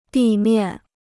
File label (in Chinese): "地面", Chinese